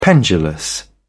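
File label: "pendulous", English, British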